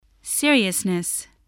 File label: "seriousness", spelling